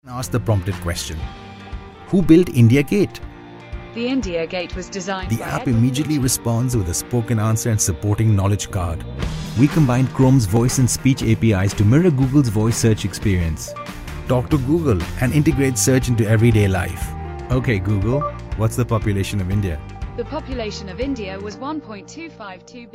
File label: english international others